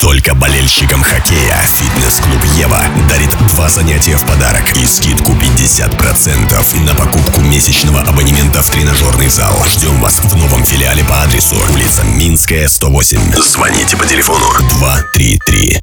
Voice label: РЕКЛАМНЫЙ РОЛИК; Фитнесс Клуб Ева - Хоккейная Акция

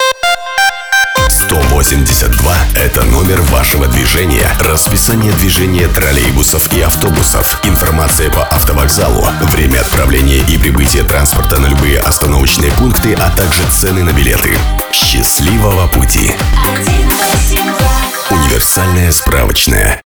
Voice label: РЕКЛАМНЫЙ РОЛИК Вокзалы и Информация; 182 - Вокзалы и Информация

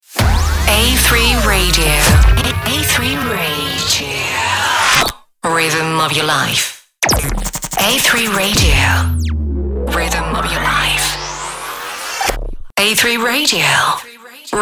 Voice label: Джингл, Диктор

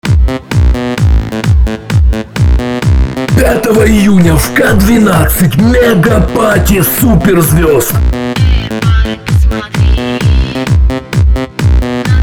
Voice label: радио реклама

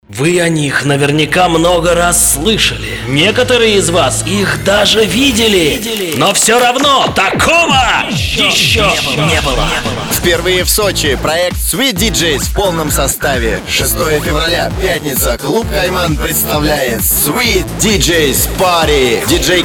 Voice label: Рекламный ролик; Sweetdjs party в Сочи

